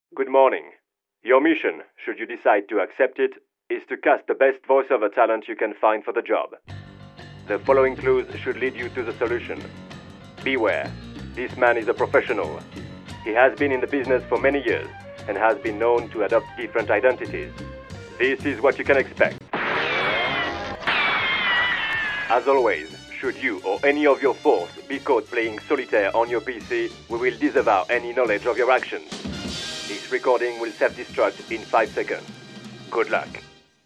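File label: French English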